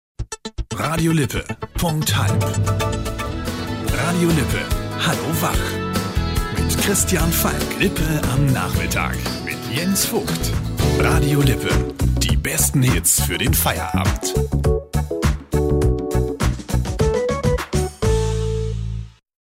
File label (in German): station voice